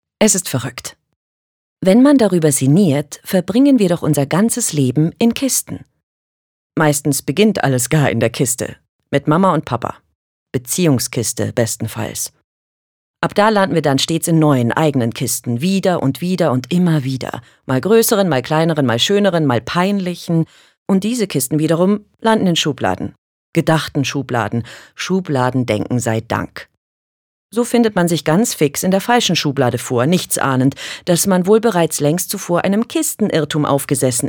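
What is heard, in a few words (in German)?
acting, Frech; Kisten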